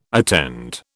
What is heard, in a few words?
"attend", British